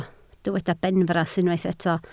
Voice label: "benfras", Welsh